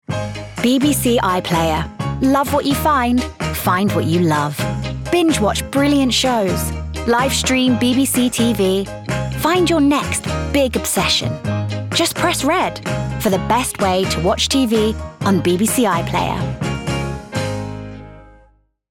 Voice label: Promo Reel, Fresh, quirky, full of energy and extremely likeable.